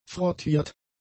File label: "frottiert", German; adjectives